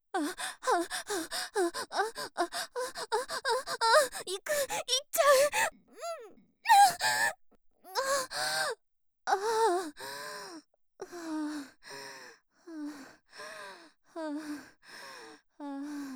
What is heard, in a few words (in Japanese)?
セリフ 絶頂